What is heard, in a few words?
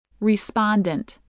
"respondent", English